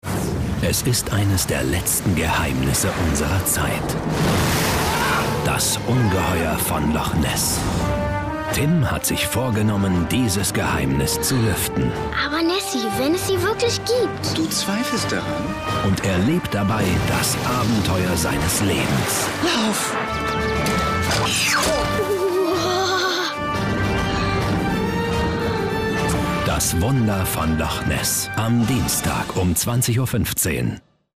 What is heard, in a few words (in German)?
off trailer